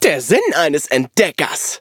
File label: level up de; Cookie Run